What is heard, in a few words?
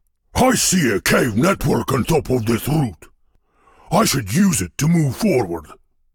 Voice Monolog